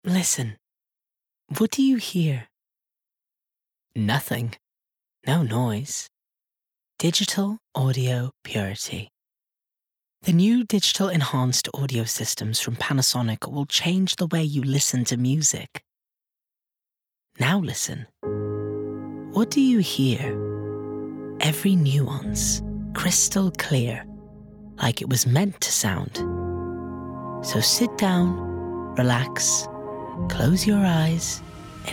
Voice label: male maltese english with light maltese accent commercial; panasonic